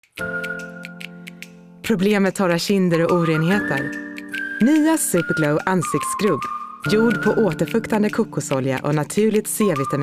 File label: Swedish Commercial